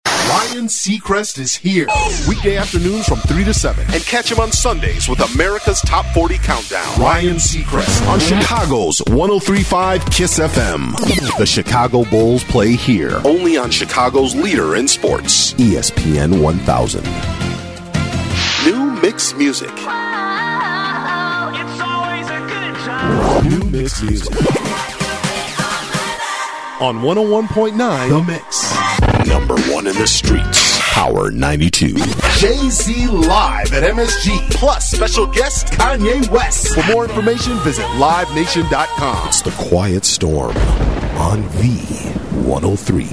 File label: Imaging, male voice talent